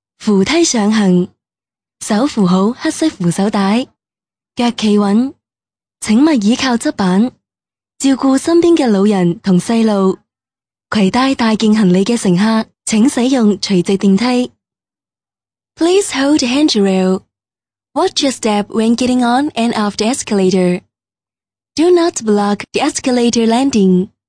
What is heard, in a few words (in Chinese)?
粤英 地铁温馨提示语, 女